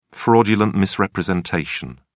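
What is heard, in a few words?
"fraudulent misrepresentation", British English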